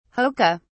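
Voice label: "hoka", British English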